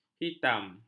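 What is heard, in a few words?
"hitam", Malay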